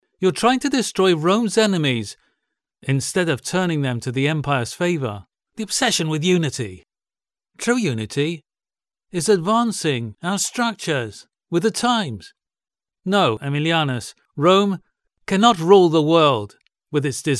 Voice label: locutor ingles english voiceover, locutor inglés británico